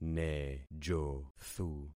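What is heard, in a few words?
"nejothu", concatenated syllables; Affixing experiment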